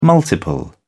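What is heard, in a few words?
"multiple", English, British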